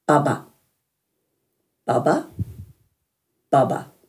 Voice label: "baba", Dhurga